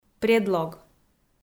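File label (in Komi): "предлог" Komi, female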